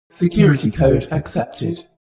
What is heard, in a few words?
female status report